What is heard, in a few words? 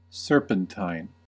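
"serpentine", American English; pronunciations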